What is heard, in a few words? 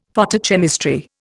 "Photochemistry", Indian; Dictionary